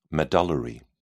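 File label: "medullary", English, British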